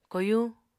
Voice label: "koyuñ", Apatani